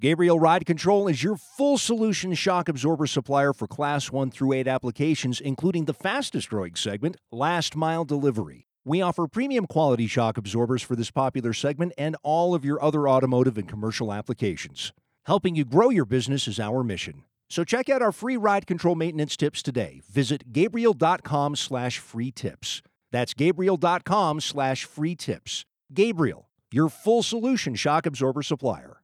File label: Radio Ad; Red Eye; Gariel Shocks Full Solution